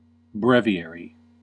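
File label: "breviary", US English; pronunciations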